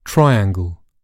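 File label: "triangle", English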